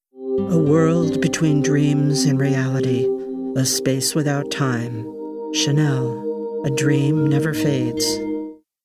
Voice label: Commercial; Chanel